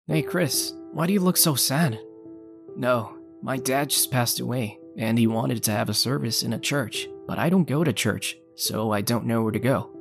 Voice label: AD; GCCNY